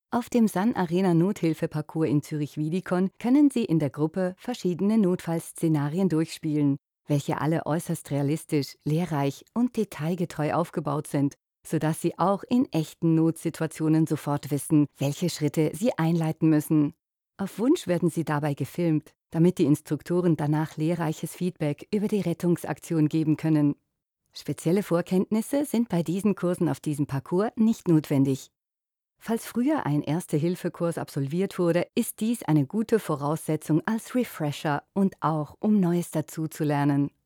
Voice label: erklaervideo; san arena

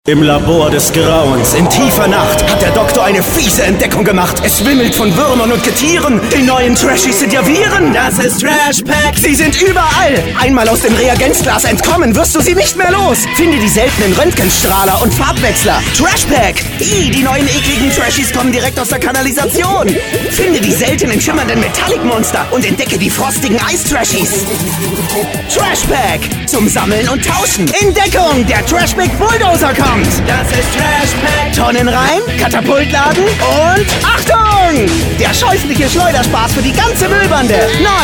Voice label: werbung verrueckt dynamisch verspielt energetisch kindlich; trash pack

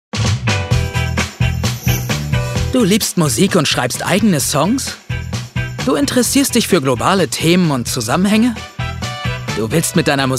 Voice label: voice over erklaerfilm; song contest dein song fuer eine welt

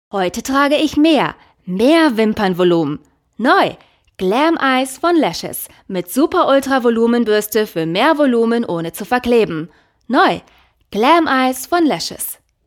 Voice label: werbung

